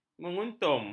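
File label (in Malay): "menguntum", Malay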